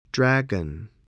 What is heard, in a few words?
"dragon", spelling